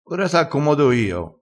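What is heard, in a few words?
"accomoda", Italian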